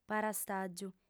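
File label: "parastàgiu", Sardinian, female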